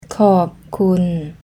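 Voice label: "Khop khun", Thai; Korb Kun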